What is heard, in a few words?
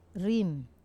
"rim", five, wamesa